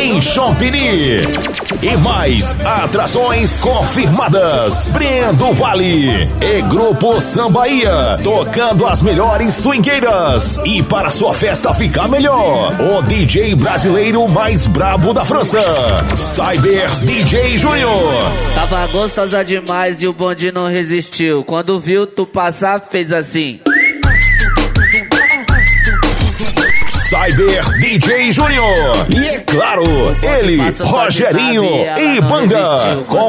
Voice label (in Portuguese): locutor pagode; PAGODE DO ROGERINHO